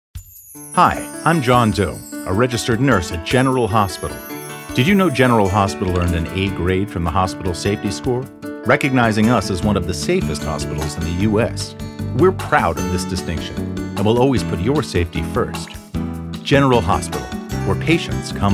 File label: spot with music